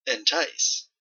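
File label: "entice", Canadian English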